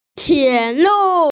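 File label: "tie lu", Mandarin Chinese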